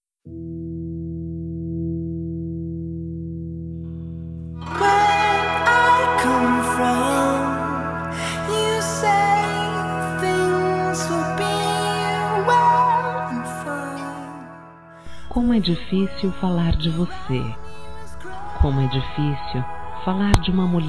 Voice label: Dia das Mães Geral F; Telemensagens 2017

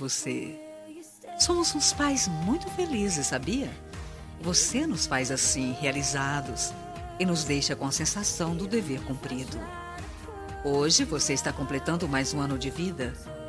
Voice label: FILHO PLURAL F, Telemensagens, Aniversários, Fem; Aniv Familiar

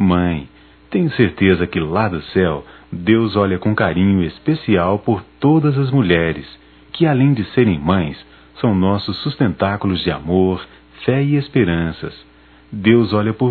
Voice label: Ano novo para mãe, masc; Natal e ano novo; Telemensagens 2017

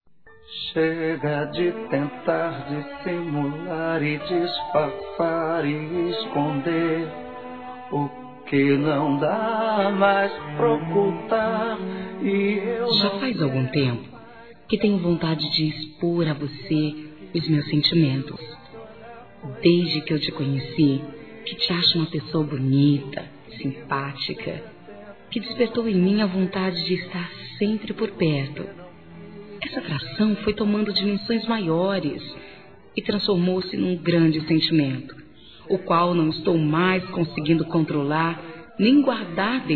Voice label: CONQUISTA F; Telemensagens; Conquistas Cantadas paqueras; fem; Telemensagens 2017

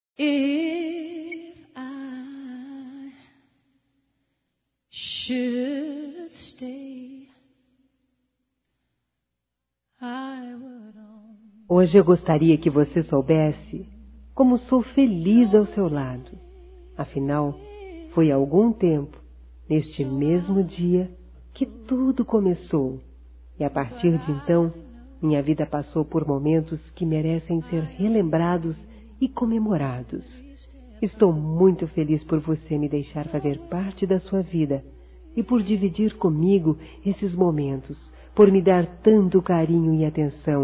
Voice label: Aniversario Namoro ou Casamento Feminino; Aniversários; romantico

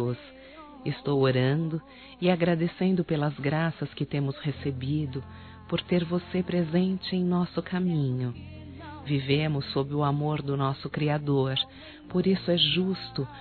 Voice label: natal e ano novo para irmão f; Telemensagens; fem; Misto

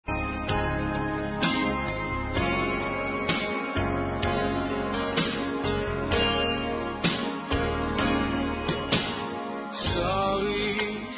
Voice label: FELIZ ANIV. F; Aniversários; Aniversários diversos; fem

